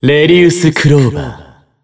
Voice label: System Voice; BBCF; Jin